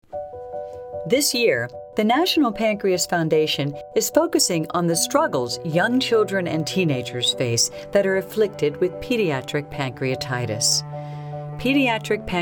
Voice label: voiceover audio music; NPF Camp Hope